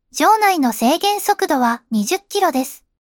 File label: slow down 20, voice